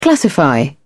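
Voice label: "classify", English, uk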